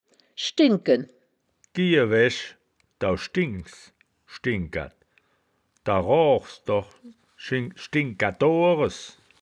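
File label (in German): "schdin gken", wittlicher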